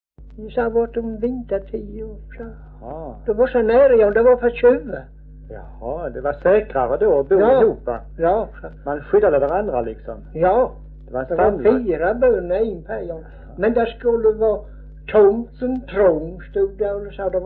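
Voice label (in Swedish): Örkened Skåne, Svenska sagor dialekter; Folkminnen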